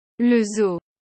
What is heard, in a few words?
"Le zoo", french